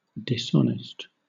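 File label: "dishonest", British English; pronunciations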